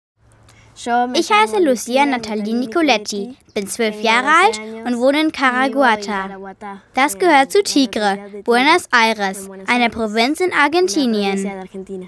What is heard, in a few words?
VoiceOver